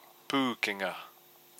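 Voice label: "pukenga", Māori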